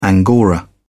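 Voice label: "angora", British English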